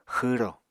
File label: "xwro", Apatani